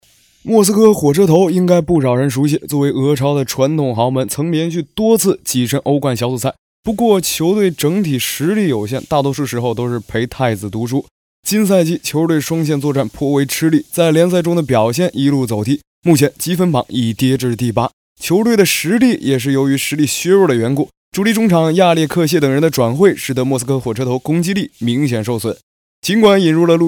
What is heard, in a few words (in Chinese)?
自媒体足球评论